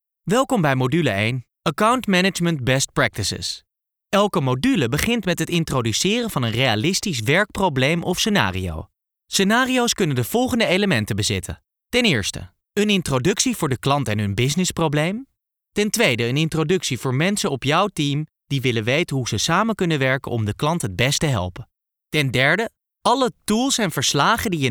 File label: e learning